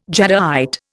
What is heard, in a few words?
"jadeite", Indian